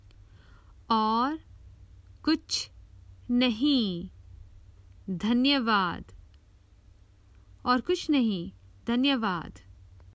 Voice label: Common Hindi Phrases for travel Nothing else thank you